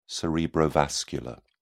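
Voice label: "cerebrovascular", British English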